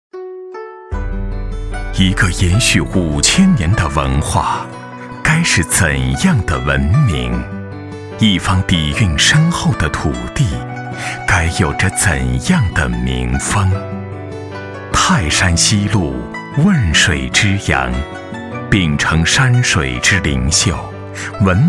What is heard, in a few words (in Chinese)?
人文纪录; 汶阳西徐